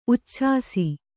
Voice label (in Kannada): "ಉಚ್ಛಾಸಿ", kannada